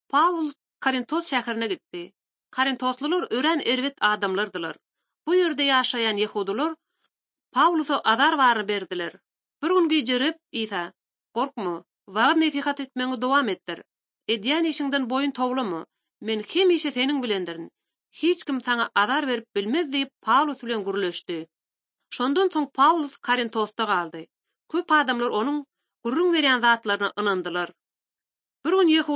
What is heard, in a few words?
turkmen, bible translation drama